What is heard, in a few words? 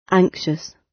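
"anxious", British English; Time, history; Thời gian, lịch sử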